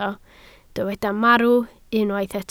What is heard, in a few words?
"marw", Welsh